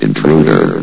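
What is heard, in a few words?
"intruder", male synthesized voice; vox; source engine; hl1; video games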